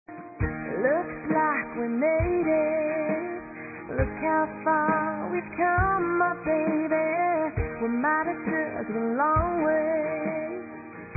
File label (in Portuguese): TE ADORO F, Dia Dos namorados, fem; Telemensagens 2017